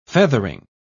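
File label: "feathering", British English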